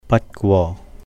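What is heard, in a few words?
"Bat Gwo", Cantonese; 不過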